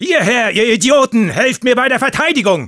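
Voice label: help me defend, German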